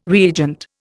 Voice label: "reagent", Indian

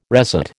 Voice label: "resat", American

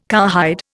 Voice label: "cowhide", Indian

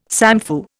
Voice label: "samfu", Indian

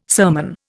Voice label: "sermon", Indian

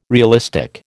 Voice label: "realistic", American

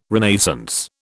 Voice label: "Renaissance", British

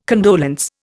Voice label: "condolence", Indian

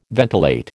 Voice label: "ventilate", American

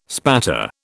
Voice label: "spatter", British

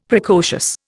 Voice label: "Precocious", Indian; Dictionary

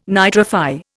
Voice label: "nitrify", Indian

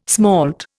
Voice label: "smalt", Indian

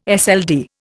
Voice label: "SLD", Indian

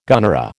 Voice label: "gunnera", American

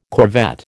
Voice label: "corvette", American

